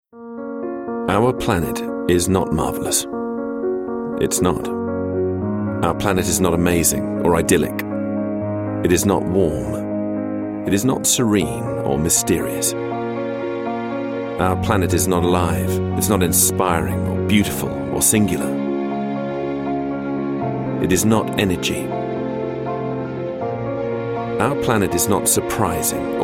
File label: male british english comercial; canari; ideasonora